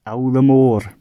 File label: goodbye, gwentian